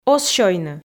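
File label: "оз сёйны", Komi, female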